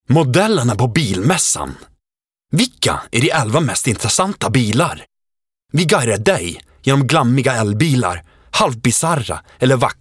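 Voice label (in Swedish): Male Swedish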